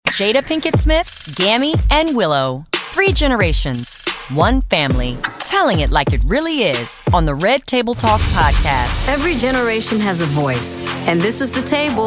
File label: Promo; Red Table Talk